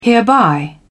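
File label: "hereby", British English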